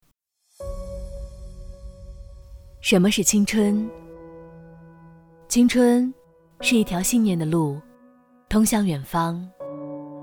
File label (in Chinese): 女 旁白 自然; 什么的青春